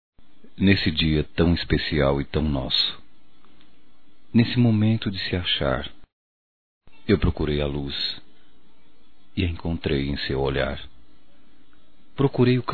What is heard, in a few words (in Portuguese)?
ANIVERSÁRIO NAMORO OU CASAMENTO, masc; Casamentos